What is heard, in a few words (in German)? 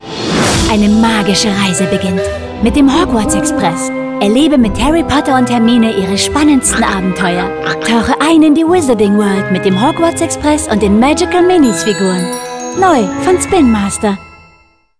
Spots, frauen; Spinmaster; Express